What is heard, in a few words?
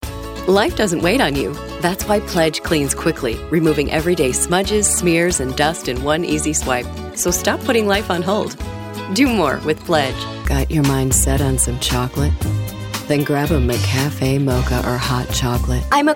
Commercial, voiceover; 1 Minute; Demo